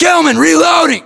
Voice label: inform reloading, US

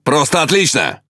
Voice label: Ru Speech Good Game; Quick Commands

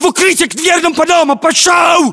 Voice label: order cover doorway, ru; localized english

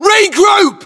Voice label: regroup, UK

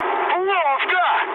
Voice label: trick, speech ru

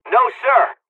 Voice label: dialogs serbian; War Thunder

